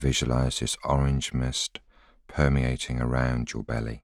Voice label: voiceover